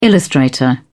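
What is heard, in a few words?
"illustrator", British English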